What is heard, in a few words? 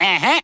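"uh huh", reggae; ooh ahh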